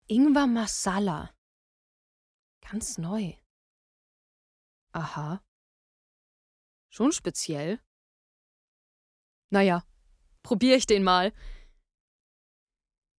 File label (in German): sprache joghurt mädchen; LOFT VOICES